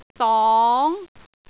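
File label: "dong2", Cantonese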